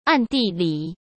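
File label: "暗地里", Mandarin Chinese; chinese flashcards; hsk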